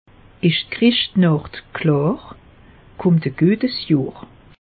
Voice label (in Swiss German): "chrischtnacht", alsace; meteo